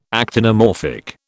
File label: "actinomorphic", British